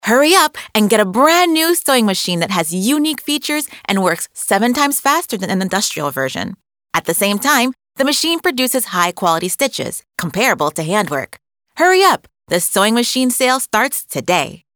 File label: ENG female Positive